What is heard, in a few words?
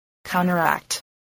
"counteract", voice spelling